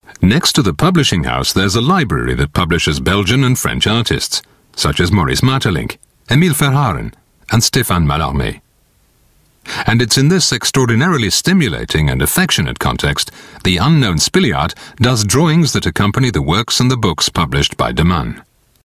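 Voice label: English, male, corporate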